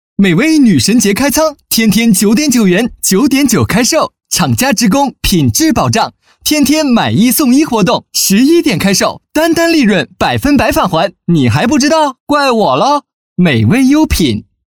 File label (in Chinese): 男 广告 年轻 时尚; 网购; 美微网购